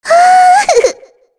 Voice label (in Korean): Vox Happy, kr; voices; heroes; Shea; kingsraid